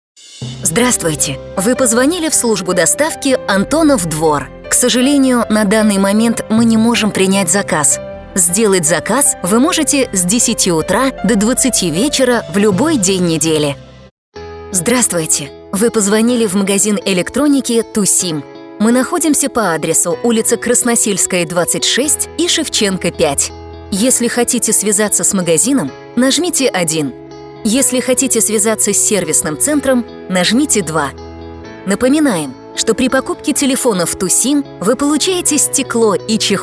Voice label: IVR

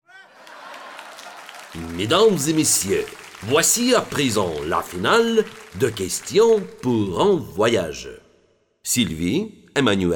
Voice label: French

